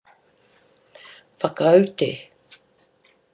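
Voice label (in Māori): "whakaute", Māori word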